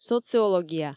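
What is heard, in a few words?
"социология", Komi, female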